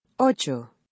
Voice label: "ocho", American Spanish